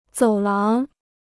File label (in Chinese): "走廊", Chinese